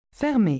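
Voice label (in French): "fermer" French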